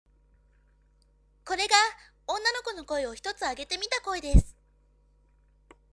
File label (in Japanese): onna